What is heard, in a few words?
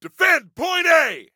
DEFEND, Male; T2 Male 05